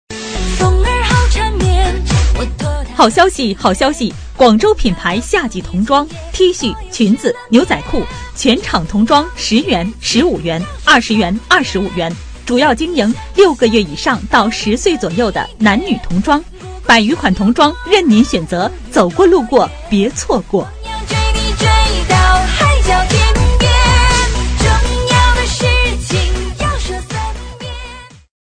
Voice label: 女 促销 品牌服装

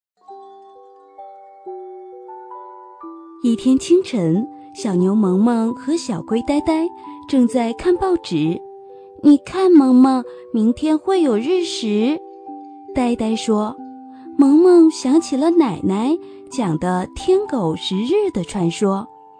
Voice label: 女 解说 儿童故事

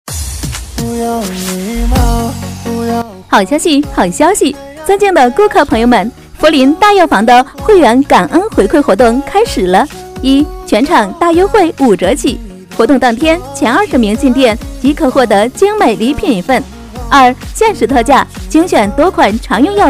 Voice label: 女 促销 大药房; 福临大药房

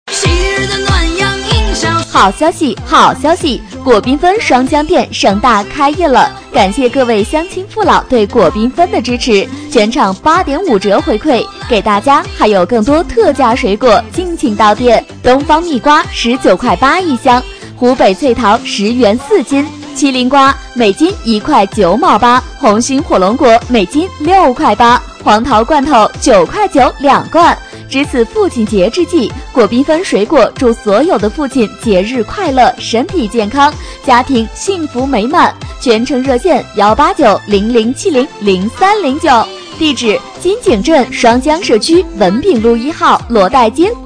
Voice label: 女 促销; 果缤纷

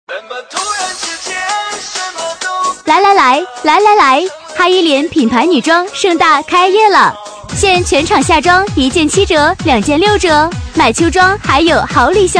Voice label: 女 促销, 促销女配; 哈依莲女装开业

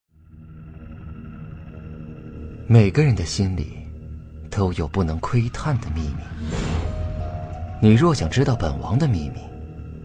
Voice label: 男 角色 阴险王子

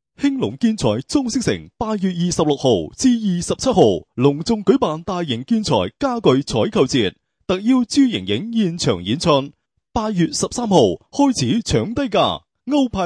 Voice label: 粤语 男; 建材联盟

